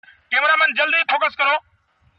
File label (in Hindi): Cameraman Jaldi Focus Karo Funny Dialogue